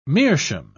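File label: "meerschaum", English, British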